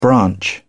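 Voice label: "branch", British English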